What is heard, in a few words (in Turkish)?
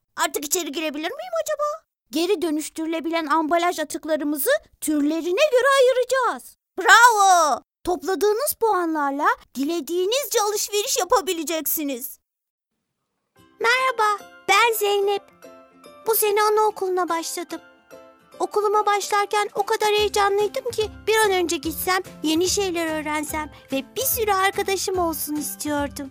ANIMASYON